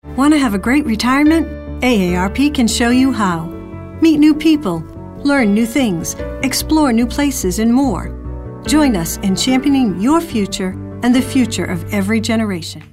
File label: mature